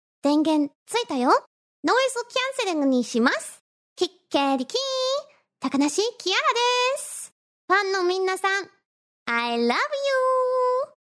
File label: JPN; Kiara; aviot